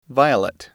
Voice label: "violet", English

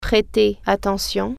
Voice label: "pay attention", French

